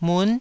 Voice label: "mun9", Taiwanese

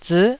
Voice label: "zii9", Taiwanese syllable

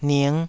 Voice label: "ning5", Taiwanese

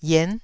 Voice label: "ien9", taiwanese syllables

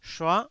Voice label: "shua9", taiwanese Syllables

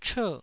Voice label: "cher4", Taiwanese

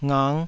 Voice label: "ngang5", taiwanese syllables

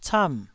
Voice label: "cam4", taiwanese syllables

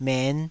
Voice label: "men5", Taiwanese syllable

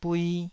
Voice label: "bui2", taiwanese Syllables

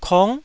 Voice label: "kong9", taiwanese syllables

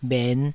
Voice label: "bhen5", taiwanese

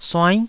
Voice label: "suainn9", taiwanese Syllables

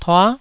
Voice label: "tua9", taiwanese

